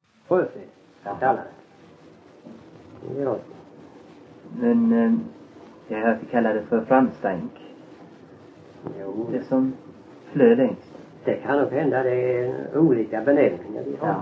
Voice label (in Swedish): Öljehult, dialekter; svenska sagor